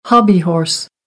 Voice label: "hobbyhorse", American

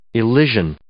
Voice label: "Elysian", English

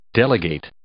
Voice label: "delegate", English

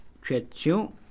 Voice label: "pescar", Mam; INALI

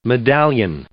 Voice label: "medallion" noun

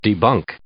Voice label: "debunk", English

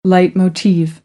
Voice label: "leitmotiv", American; oʊ - əʊ

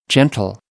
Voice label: "gentle", American

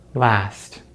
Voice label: "last", American English; easypronunciation

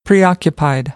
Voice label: "preoccupied", American English; ɑː - ɒ

